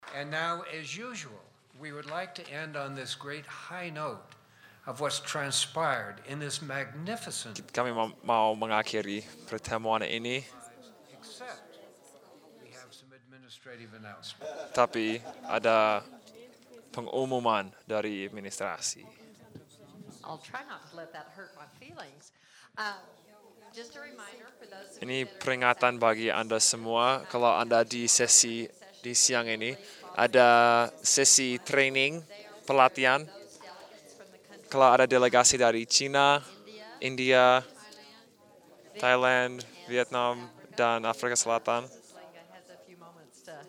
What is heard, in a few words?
Indonesian; iclrs symposium 2009